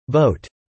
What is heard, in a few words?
"boat", English